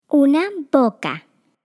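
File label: Spanish MOUTH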